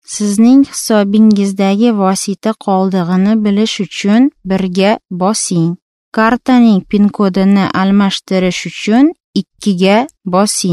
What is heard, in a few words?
uzbek female